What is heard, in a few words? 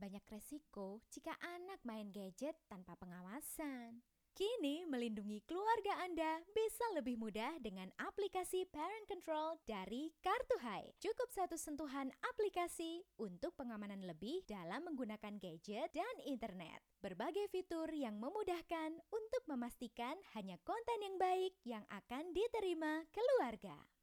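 casual Indonesian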